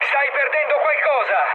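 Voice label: Italian tank damaged mood med, speech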